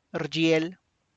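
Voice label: tlacochahuaya, Zapotec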